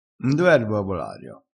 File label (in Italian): "ar posto", crespinese